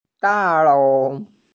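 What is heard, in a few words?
"building", Mandarin; da4lou2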